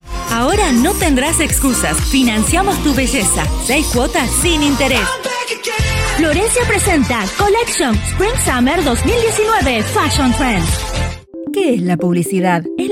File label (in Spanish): voces femeninas